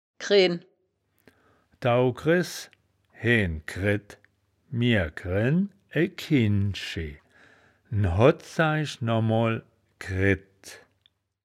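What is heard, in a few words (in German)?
"kreen", wittlicher woerterbuch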